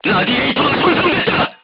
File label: voice message radiator damaged, korean